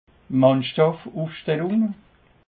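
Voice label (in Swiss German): "mannschaftufstellung", Alsatian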